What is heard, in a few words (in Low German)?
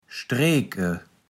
"strek'e", Platt